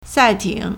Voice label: "sai4 ting3", Chinese